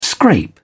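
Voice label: "scrape", British English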